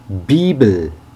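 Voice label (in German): "bibel", German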